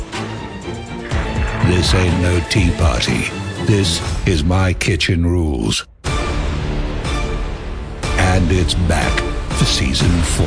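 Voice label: Epic voiceover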